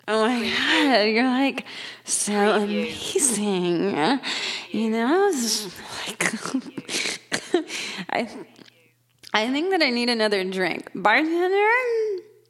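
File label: Female Drunk Talk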